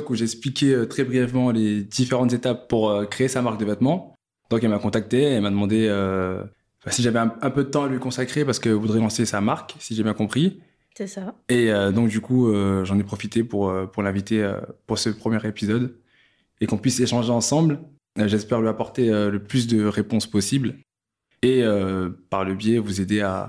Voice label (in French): À vos marques avec musique Homme